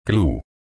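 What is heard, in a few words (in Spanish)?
"clu", Spanish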